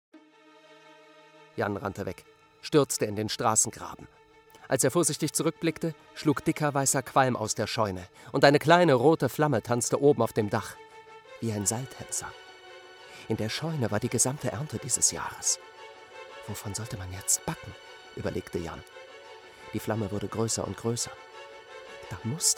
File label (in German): Sprecher Der Junge